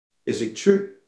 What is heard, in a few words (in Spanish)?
Voice Acting es M